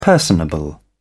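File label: "personable", British English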